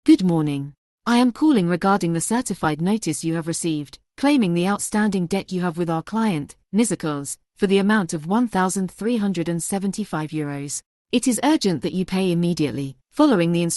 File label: audio call